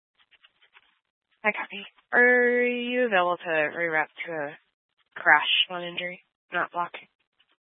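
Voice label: Police Dispatch, Scanner audio; Police 1 Dispatch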